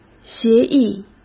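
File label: "协议", Mandarin Chinese; chinese-flashcards; hsk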